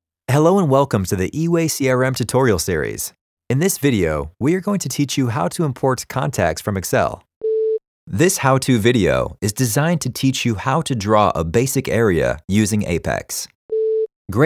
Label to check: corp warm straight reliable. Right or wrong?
Wrong